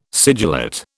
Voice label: "sigillate", British

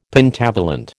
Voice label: "Pentavalent", American

